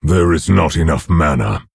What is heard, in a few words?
Orc; Nerzhul; Emote ERROR NEED MANA